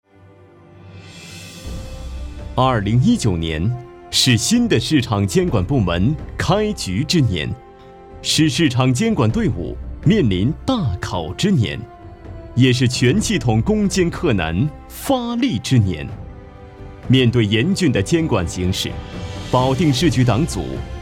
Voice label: 男 专题 市场监管局执法办案工作综述; 保定市场监管局执法办案工作综述

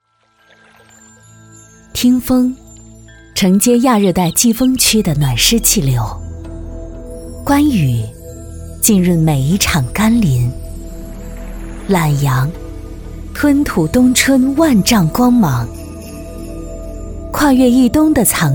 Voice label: 女 古典深情大气

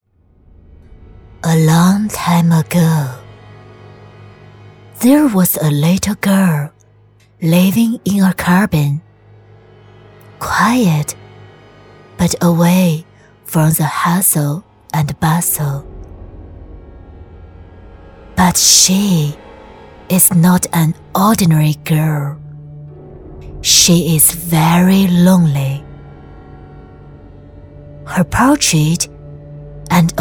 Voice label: 女 游戏 鬼屋英语多角色

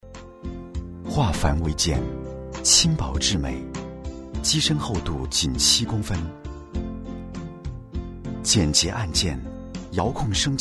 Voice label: 男 产品介绍 晾衣机

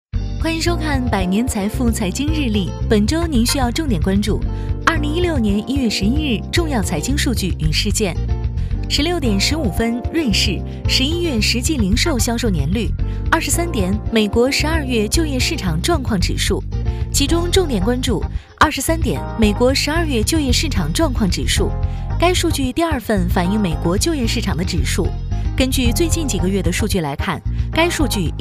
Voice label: 女 专题 轻松播报财经

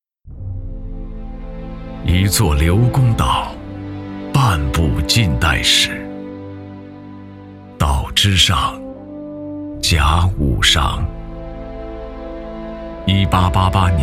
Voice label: 男 专题; 刘公岛

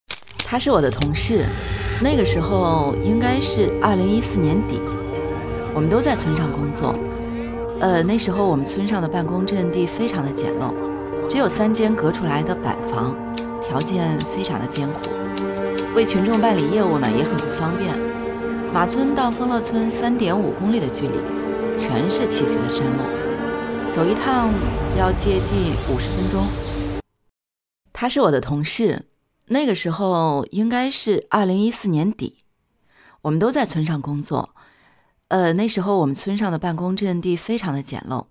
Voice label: 女 独白 自然独白; 他是我的同事

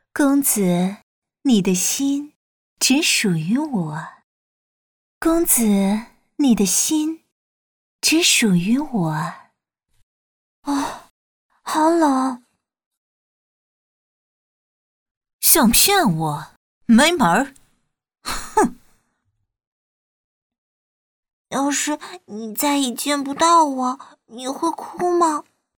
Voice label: 女声试音 角色

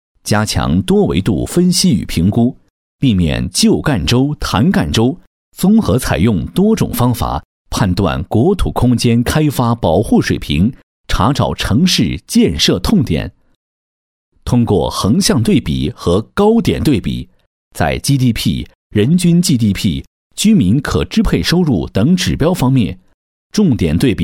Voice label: 男-汇报片; 国土空间开发保护现状评估成品

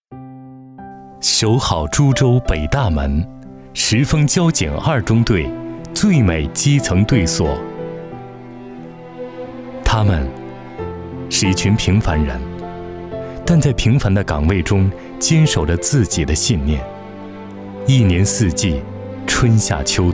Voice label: 男 公安专题; 石峰交警二中队-最美基层队所

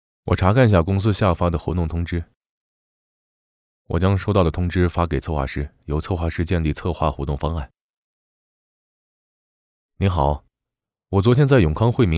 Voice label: 角色样音

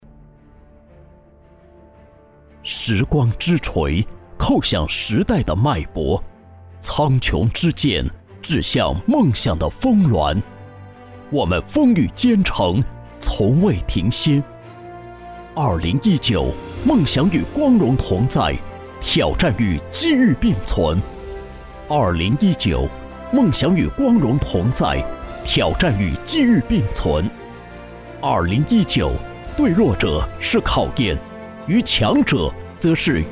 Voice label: 年会汇报 激昂奋进

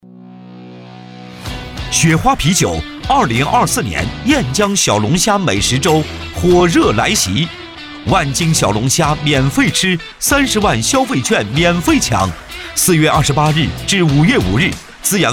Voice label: 男, 宣传片, 热血活力, 龙虾美食周

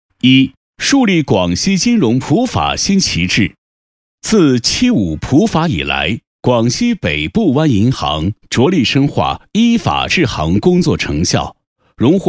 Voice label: 男 汇报 宣传片; 北部湾银行普法宣传月系列活动总结宣传片

